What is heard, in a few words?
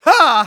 positive vocalization, de